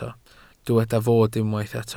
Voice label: "fod", Welsh